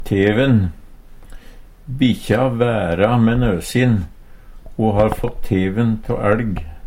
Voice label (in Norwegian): teven, Numedalsmål